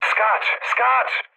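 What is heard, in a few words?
bailout, mood low, Polish speech; War Thunder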